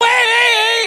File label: "ay", voice